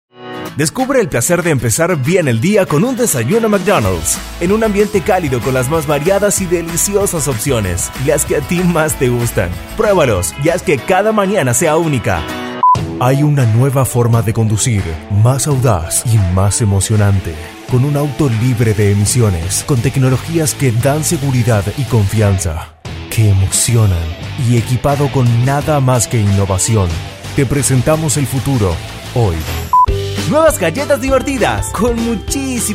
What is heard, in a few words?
Spanish Latin American commercial; Uruguay; Spanish (Argentinian)